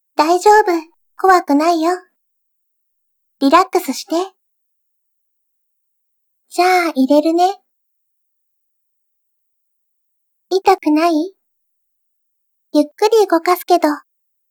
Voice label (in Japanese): ヤンデレに拉致監禁されて耳掻きされる音声作品 セリフだけ版